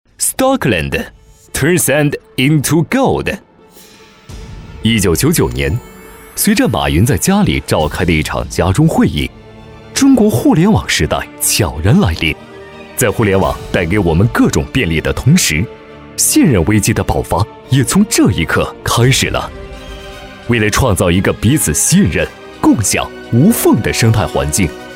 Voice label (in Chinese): 科技感